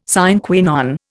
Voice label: "sine qua non", Indian